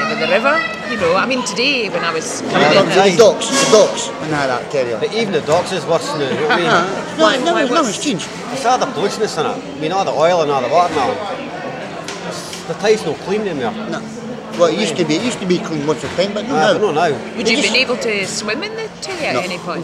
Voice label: Dens Road Market Dundee, scotspeak